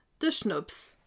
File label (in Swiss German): "schnapps", alsace